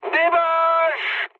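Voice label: enemy detected, mood high, French; War Thunder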